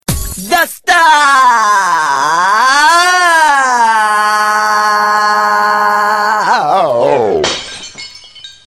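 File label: Got it!!! (talking siren); Dostaaal!!! (govoryaschaya sirena)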